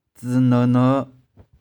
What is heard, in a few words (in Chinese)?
"tsy1no1no5", 玉環